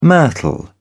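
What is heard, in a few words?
"myrtle", British English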